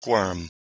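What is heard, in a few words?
"kwrm", Proto-Indo-European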